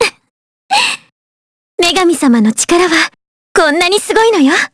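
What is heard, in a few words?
voice Victory, voices heroes, Japanese; Maria; kingsraid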